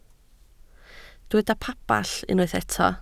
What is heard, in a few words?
"pabell", Welsh